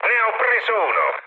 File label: player killed enemy mood med, speech it; War Thunder